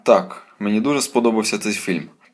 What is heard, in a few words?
dialogue, Ukrainian